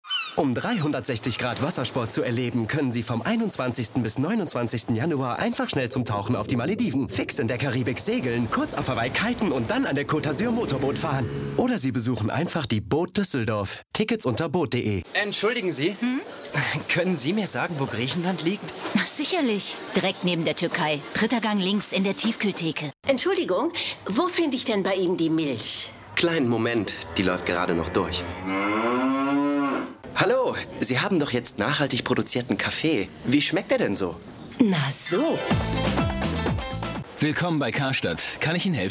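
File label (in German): Hörprobe Werbung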